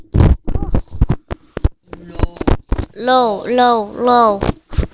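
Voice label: "low", spoken word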